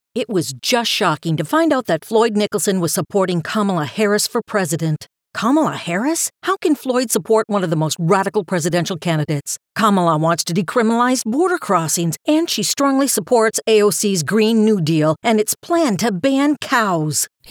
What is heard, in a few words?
English American political ads